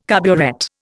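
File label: "carburet", Indian English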